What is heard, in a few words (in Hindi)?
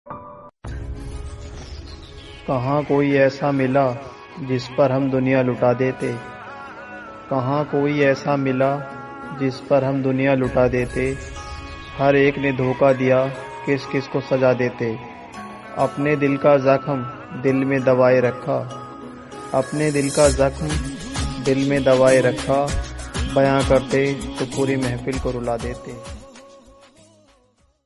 free fire sad shayri